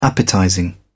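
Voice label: "appetizing", British English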